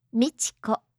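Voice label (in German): "Michiko", japanische Mädchennamen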